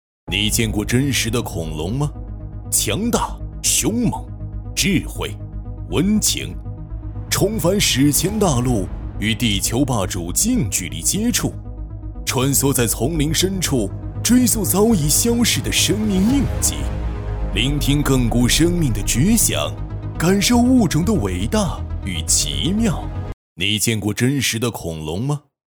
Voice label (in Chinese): 男 独白